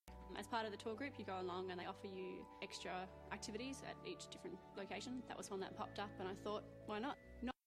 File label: glottal stops